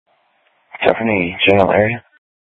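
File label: Sheriff, Parks, Sheriff radio scanner; Shrf Mnt (Parks)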